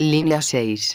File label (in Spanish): linea 1, megafonias; exterior